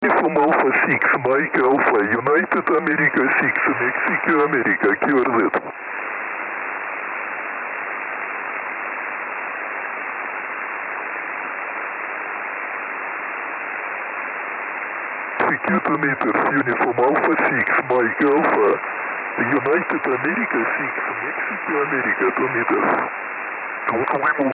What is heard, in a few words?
SSB CQ